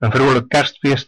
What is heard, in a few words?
christmas, west flemish